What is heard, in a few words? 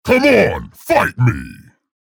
chatter positive; scalebearer; frag